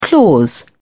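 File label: "clause", English, uk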